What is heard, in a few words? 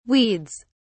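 "weeds", British English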